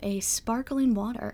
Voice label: sparkling water, Voice recordings, American, Conversation